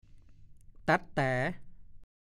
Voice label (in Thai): "แต๊ดแต๋", ภาษาโคราช; 1. แบนเรียบ ตะแล็ดแต๊ดแต๋ ก็พูด